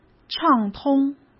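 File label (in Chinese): "畅通", Mandarin Chinese; hsk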